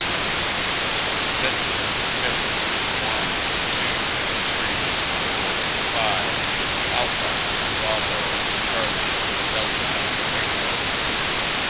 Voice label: analog snr +02; freedv; 700D